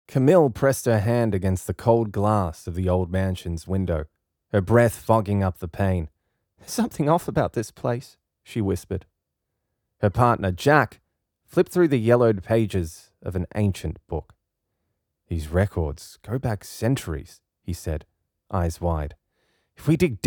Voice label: audiobook; English (Australian)

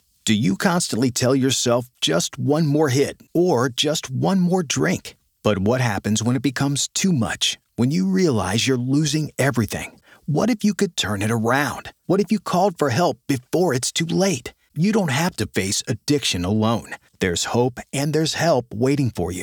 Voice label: Commercial american male voice over

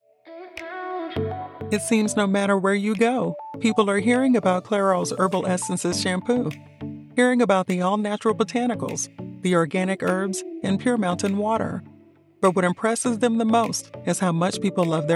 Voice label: commercial

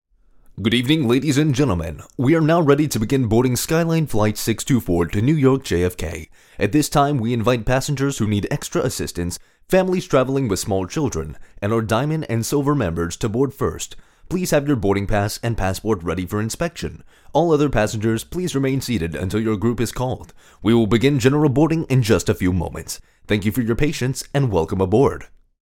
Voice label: Boarding Call

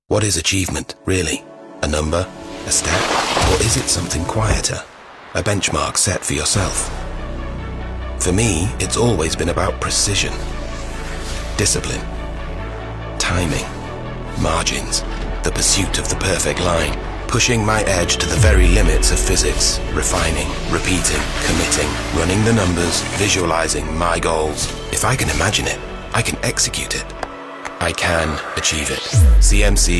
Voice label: commercial; GB Snowsports; Spanish (Latin American)

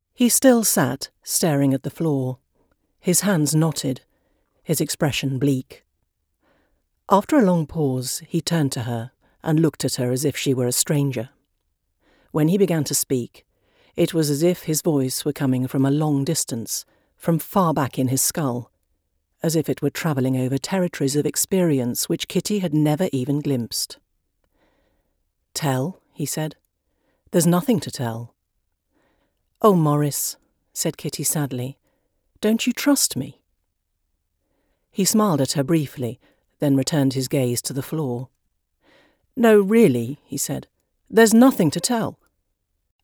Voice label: audiobook

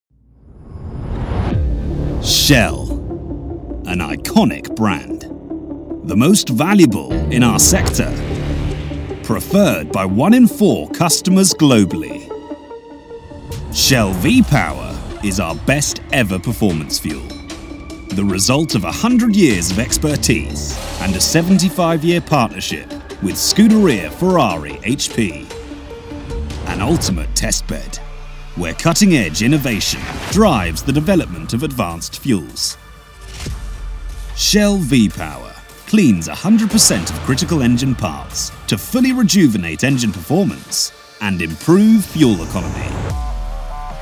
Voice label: Advertisement, British voice over; Team Ferrari; Shell V Power Advertisement

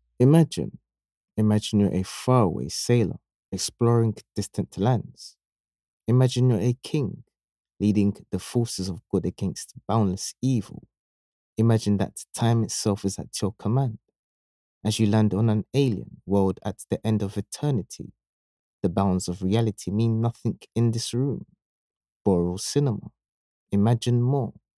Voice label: male voice over

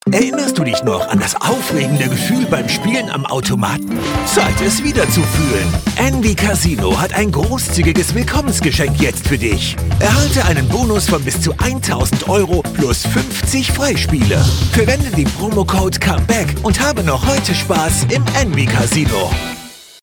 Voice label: Commercial Casino

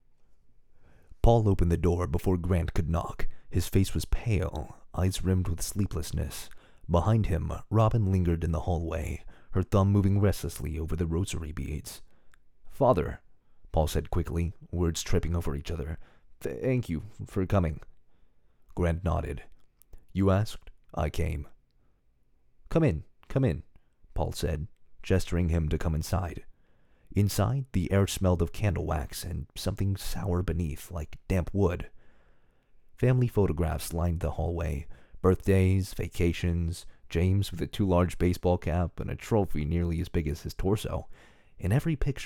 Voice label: Sample Audio Book

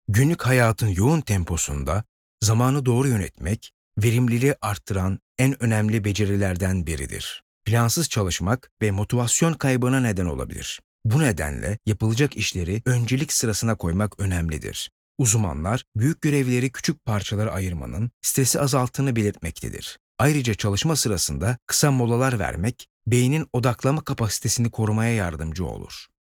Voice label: Informative

